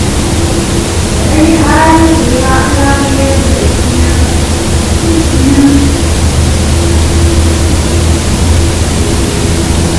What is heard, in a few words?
Attic Unexplained Female voice; Turner Home Bluefield WV